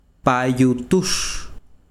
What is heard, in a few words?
besiro flecha, chiquitano